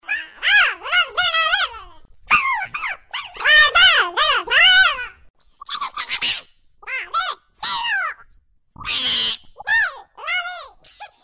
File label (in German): aliensprache